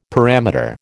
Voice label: "parameter", American